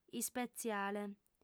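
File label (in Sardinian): "ispetziale", Sardinian, female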